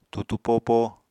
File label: "tutu popo", apatani